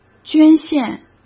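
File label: "捐献", Mandarin Chinese; chinese flashcards; hsk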